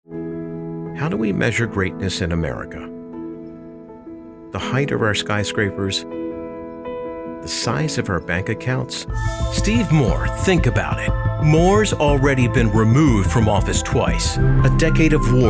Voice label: POLITICAL VOICEOVER